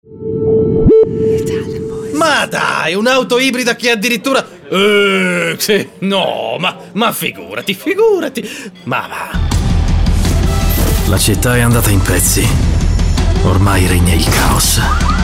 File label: ITALIAN VOICES Acting Dubbing, male voices